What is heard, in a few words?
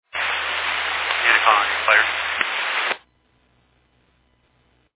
Fire Dispatch